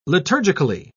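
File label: "liturgically", British English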